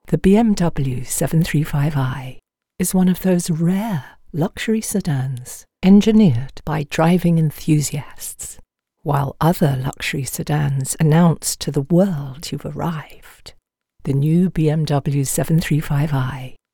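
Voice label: Commercial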